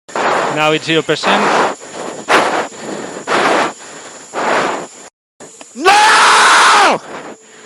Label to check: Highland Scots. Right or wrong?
Wrong